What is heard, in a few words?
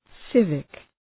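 "civic", English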